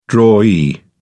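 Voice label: "drawee", British English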